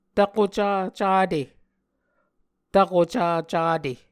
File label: "tvkoca cate", Muscogee; Mvskoke Words with Voicing Consonants; Onvkv Ohhvyayices